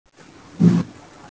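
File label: "umbrella", Mandarin; yu3san3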